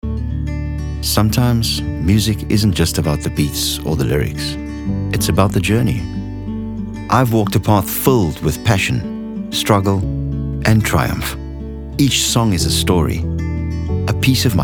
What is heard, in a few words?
Soft Sell Authentic